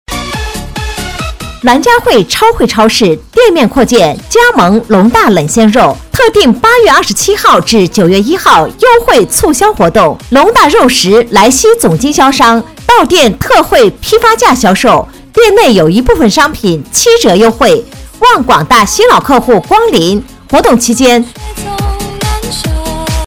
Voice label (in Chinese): 女 超市（激情）